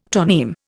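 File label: "tong", Indian; Dictionary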